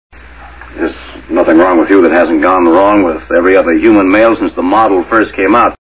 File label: male